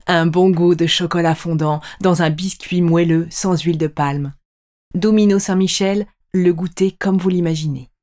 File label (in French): Pub chocolat